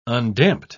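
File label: "undamped", British English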